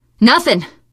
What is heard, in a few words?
area clear, teen girl; survivor voice